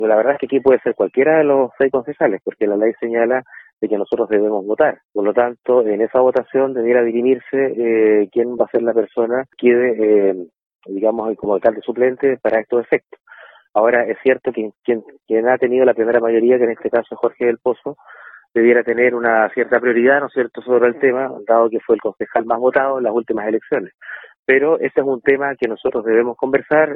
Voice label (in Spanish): CUÑA